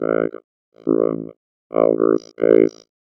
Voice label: VOICEFX ELCTR 140; Techno2